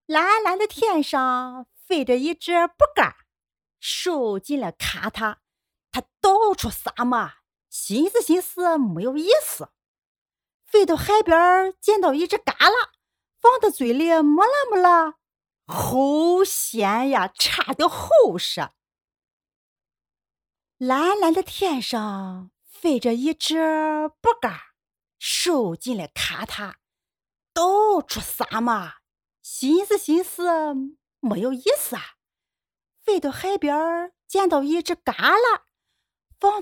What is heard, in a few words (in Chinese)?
动画 角色 山东方言, 女